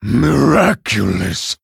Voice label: Male Orc Ogre Wow